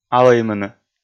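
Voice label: "алӧймыны", Komi, male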